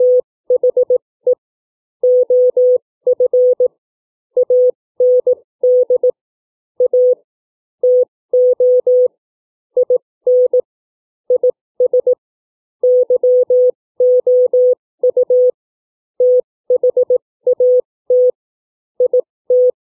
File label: book reading